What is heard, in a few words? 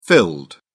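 "filled", British English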